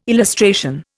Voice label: "illustration", Indian